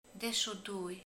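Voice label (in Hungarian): "tizenkettő", Hungarian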